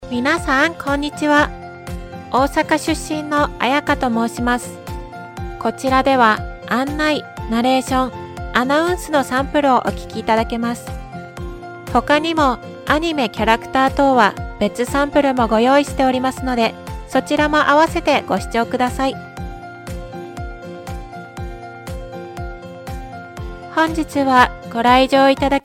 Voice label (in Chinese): 日语女声